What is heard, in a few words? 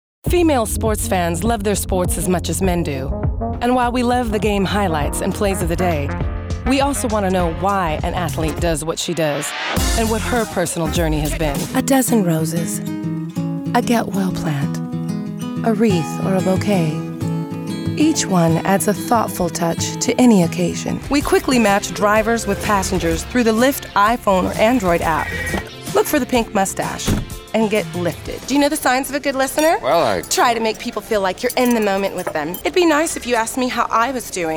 Commercial